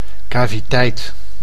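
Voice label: "caviteit", Dutch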